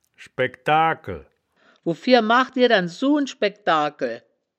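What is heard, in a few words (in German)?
"schbi-dagkel", Wittlicher Wörterbuch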